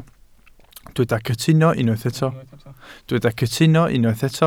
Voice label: "cytuno", Welsh

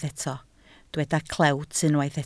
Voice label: "clewt", Welsh

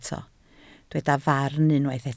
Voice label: "farn" Welsh

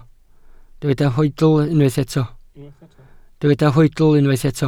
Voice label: "hoedl", Welsh

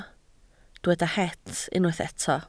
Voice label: "het" Welsh

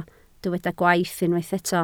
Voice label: "gwaith", Welsh

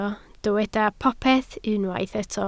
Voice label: "popeth", Welsh

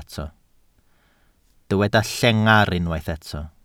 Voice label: "llengar" Welsh

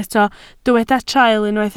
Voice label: "traul", Welsh

